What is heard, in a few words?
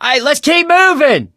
in water, voice, mechanic, survivor; Left 4 Dead 2